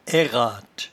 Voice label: "airrate", patois jura

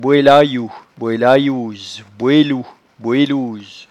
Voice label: "boelayou", patois jura

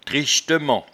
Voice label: "trichtement", patois jura

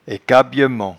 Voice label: "aicabyement", patois jura

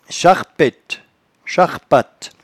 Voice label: "charpette", patois jura

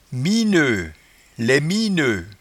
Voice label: "mieneut", patois jura

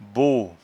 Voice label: "bos", patois jura

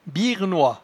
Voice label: "biernois", patois jura

